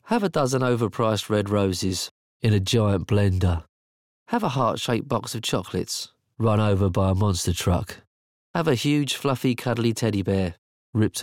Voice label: Radio VO en-GB; KitKat